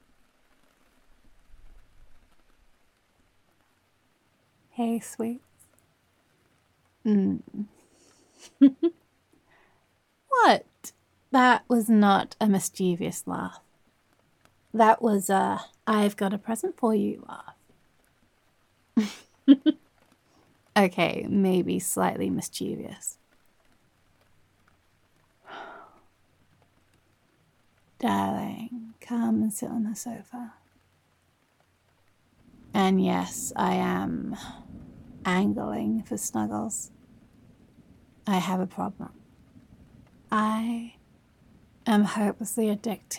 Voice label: Rainy Ambience, Girlfriend; Adorkable Alpaca; Cuddlesome; Adoration; Intimate; Roleplay; Loving